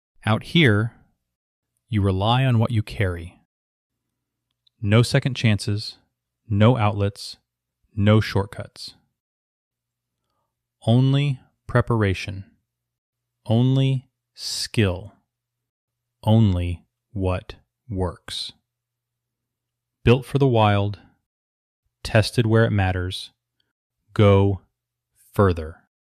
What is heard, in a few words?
ads outdoor